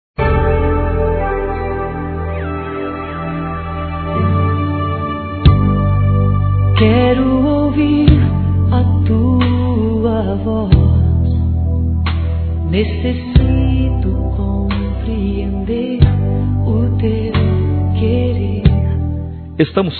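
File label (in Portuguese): mensagem romântica, masculino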